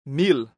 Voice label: "mil", esperanto